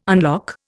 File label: "unlock", Indian